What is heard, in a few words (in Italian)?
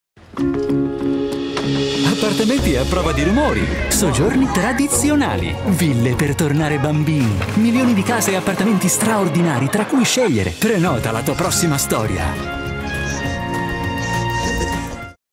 TV Spot Italian